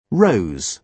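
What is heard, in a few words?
"rose", British English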